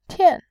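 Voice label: "tian", Mandarin Chinese, fourth tone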